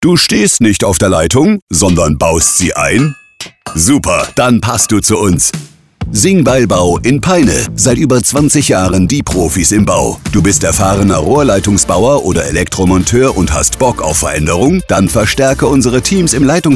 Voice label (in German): Radiospot; SingbeilBau